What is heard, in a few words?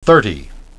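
"thirty", English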